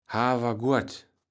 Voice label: "Havergoert", Platt

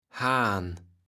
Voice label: "Haan", Platt

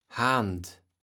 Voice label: "Haand", Platt

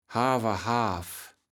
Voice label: "Haver Haaf", Platt

